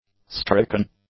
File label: "stricken", English; adjectives